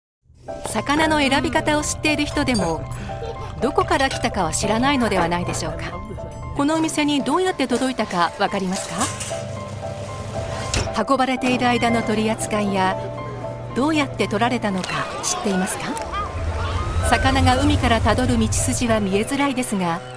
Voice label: female, Japanese corporate campaign